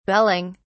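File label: "belling", British English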